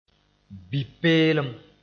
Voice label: "bi-peelem", moore